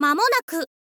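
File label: "mamonaku", Japanese, girl; voice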